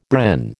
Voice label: "Bren", American

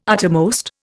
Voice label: "uttermost", Indian

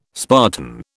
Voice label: "Spartan", British

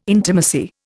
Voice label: "intimacy", Indian

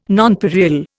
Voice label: "nonpareil", Indian

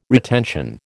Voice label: "retention", American

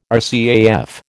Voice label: "RCAF", American

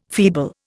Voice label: "feeble", Indian

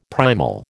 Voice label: "primal", American

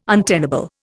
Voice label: "untenable", Indian

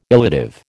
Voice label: "illative", American

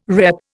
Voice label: "repp", Indian

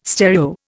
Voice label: "stereo", Indian

